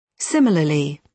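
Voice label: "similarly", British English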